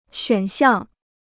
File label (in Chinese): "选项", Chinese